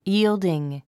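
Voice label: "yielding", English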